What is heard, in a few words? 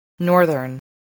"northern", voice, spelling